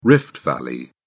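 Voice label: "rift valley", British English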